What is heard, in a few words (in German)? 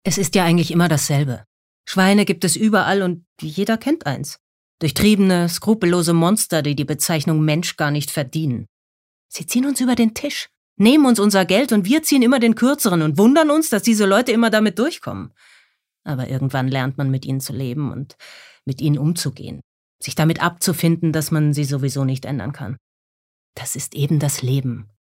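Schauspiel, Cool; Schweine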